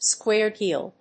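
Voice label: "square deal", English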